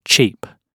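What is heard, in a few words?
"cheap", British English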